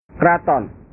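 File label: "kraton", Indonesian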